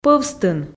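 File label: "пӧвстын", Komi, female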